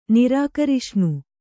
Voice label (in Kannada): "ನಿರಾಕರಿಷ್ಣು", Kannada